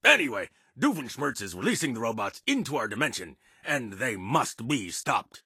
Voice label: English Voice; Disney; Phineas and Ferb; The Transportinators of Doooom

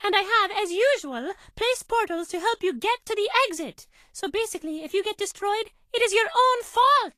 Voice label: English Voice

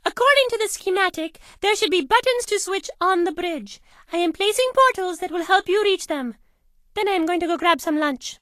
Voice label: English Voice

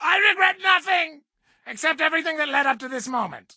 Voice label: English Voice

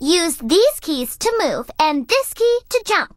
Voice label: English Voice; Disney; Phineas and Ferb; The Transportinators of Doooom

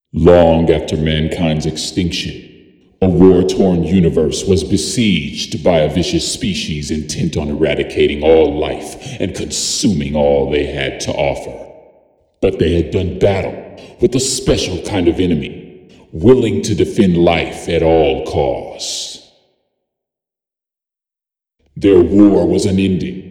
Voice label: Intro Voice Over; orbs macos windows gamerip 2017

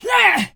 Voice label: Voices voice male attack; infernal radiation 2020

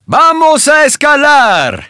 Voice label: Spanish - rockclimber welcome vo

